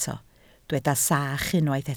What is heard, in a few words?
"sach", Welsh